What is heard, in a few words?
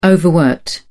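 "overworked", English, British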